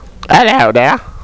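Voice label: "Hello there", spoken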